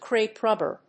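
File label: "crepe rubber", English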